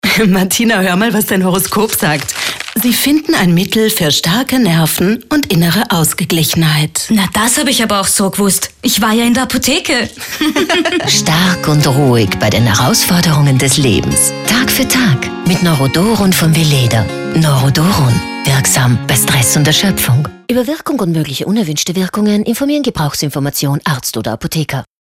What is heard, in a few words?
SPOT; Weleda Neurodoron